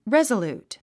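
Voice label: "resolute", English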